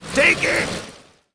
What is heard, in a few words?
yes attack, en